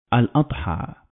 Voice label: "al-Adha", Arabic